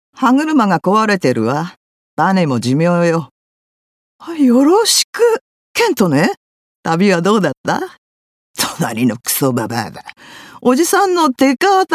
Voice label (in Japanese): 舞台セリフ